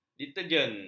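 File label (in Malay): "detergen", Malay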